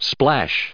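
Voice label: "splash", US English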